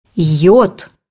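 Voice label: "iodine", Russian; bukvar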